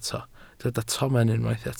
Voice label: "tomen", Welsh